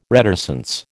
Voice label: "reticence", American

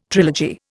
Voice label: "trilogy", Indian

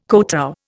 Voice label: "kotow", Indian

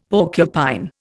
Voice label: "porcupine", Indian; Dictionary

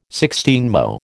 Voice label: "sixteenmo", American

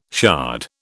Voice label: "shard", British

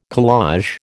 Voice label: "collage", American

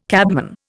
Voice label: "cabman", Indian

